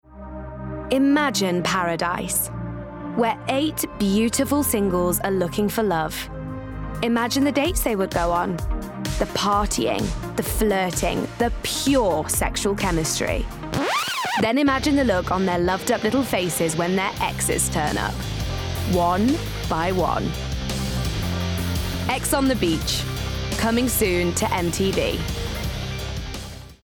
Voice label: COMMERCIALS; Ex On The Beach